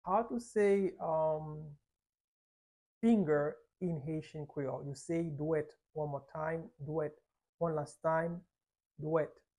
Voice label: How to say Finger in Haitian Creole Dwet pronunciation by a native Haitian Teacher